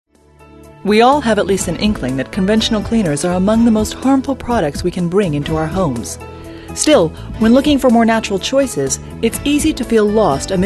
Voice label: Female Narrator